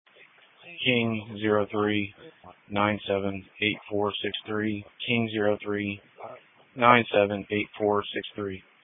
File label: Police Patrol 1 (Dispatch)